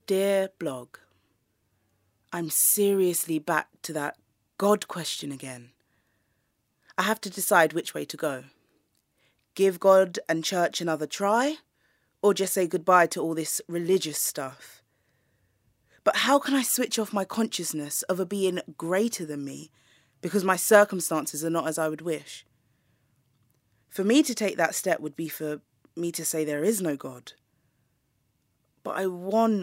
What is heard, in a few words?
Narration